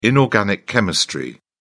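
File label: "inorganic chemistry", British English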